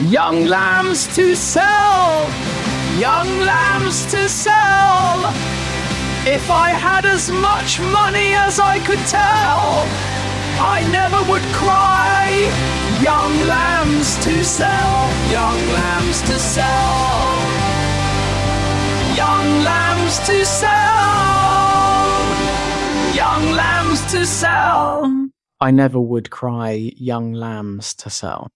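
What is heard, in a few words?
Young Lambs to Sell male british rocker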